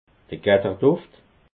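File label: "getterduft", Alsatian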